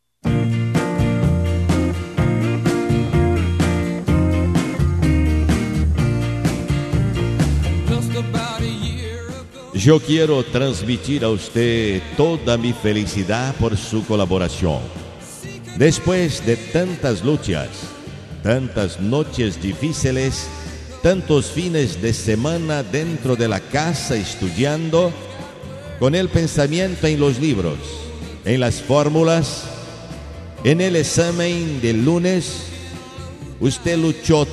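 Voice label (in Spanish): Estudiante Masculino, Espanhol; Variadas em Espanhol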